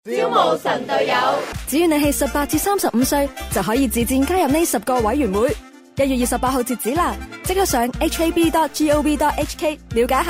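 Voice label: Cantonese female TV commercial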